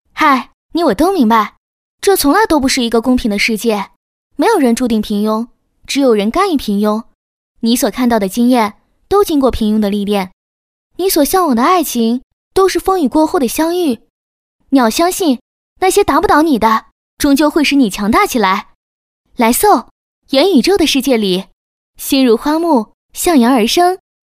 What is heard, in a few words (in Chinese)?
女 短视频 自然活泼; 素人自然